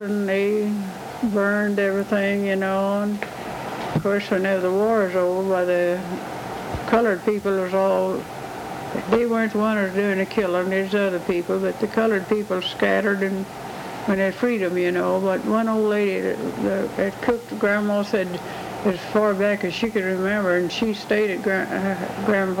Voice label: Conversation with 91 year old male and 77 year old female Arkansas; american english dialect